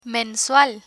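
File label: "mensual", Spanish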